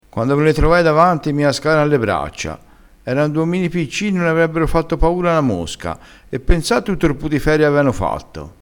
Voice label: "omini", dialettale; vocabolario dialettale

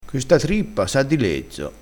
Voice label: "lezzo", crespinese

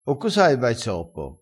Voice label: "arginello", crespinese

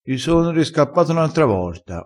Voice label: "riscappa", vocabolario dialettale, crespinese

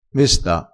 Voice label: "mesta", crespinese

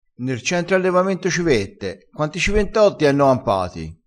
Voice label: "civettotto", crespinese

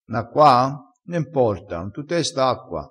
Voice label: "nacqua", crespinese

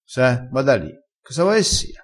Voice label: "scianguina", crespinese

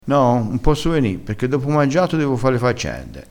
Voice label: "faccende", vocabolario dialettale

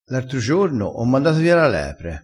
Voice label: "manda via", crespinese

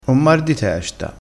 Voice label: "mar", crespinese, vocabolario dialettale

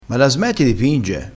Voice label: "pinge", crespinese

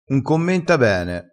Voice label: "commenta", crespinese

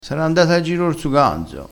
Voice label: "ganzo", crespinese

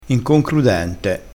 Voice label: "inconcrudente", crespinese, vocabolario dialettale

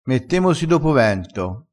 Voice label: "dopo vento", vocabolario dialettale

